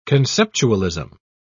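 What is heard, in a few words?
"conceptualism", British English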